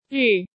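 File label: "Rì", Chinese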